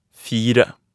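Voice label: "fire", Norwegian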